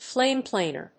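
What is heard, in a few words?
"flame planer", English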